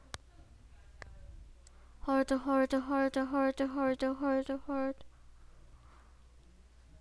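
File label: "hold", spoken word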